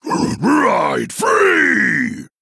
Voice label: Male Quilboar Attack